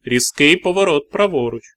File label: Sharp Right, Ukrainian, male